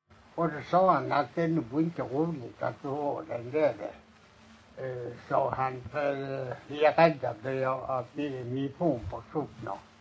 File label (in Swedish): Lommaryd, dialekter; Folkminnen